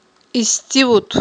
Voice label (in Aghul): "исттивут", Aghul